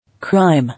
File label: "Crime", English